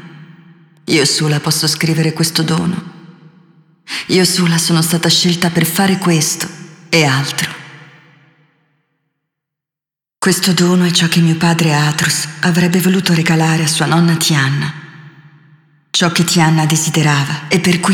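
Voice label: Yeesha Final Sp italian; moul-assets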